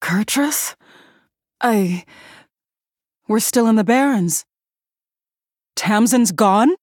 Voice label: Female Human